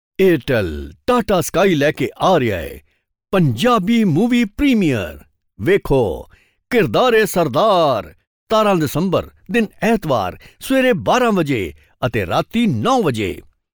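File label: PUNJABI male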